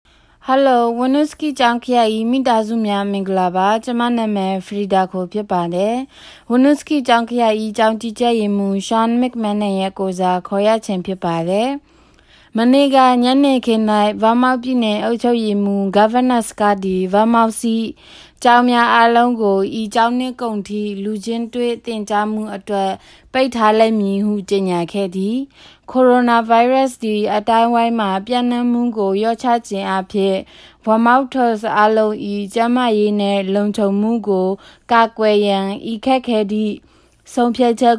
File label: Burmese